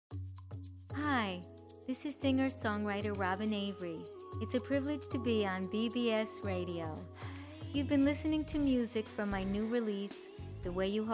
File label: bbs radio promo